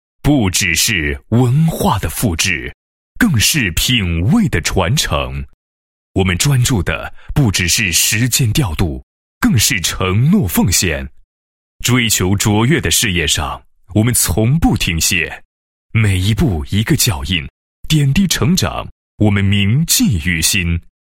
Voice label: 男 开幕词, 配音; 金豪彩印 2016年迎春晚会

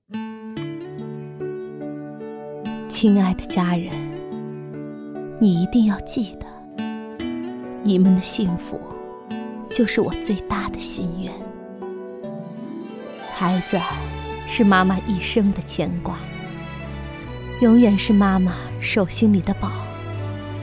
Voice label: 女 角色 母亲寄语 35岁 哀伤; 国语女声配音

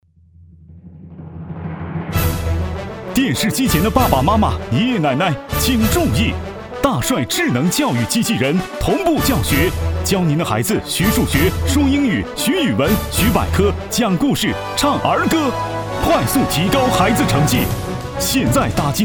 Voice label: 宣传片 机器人 电购; 大帅机器人

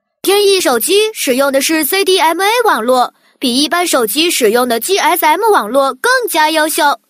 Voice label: 女 男童, 手机; 天翼

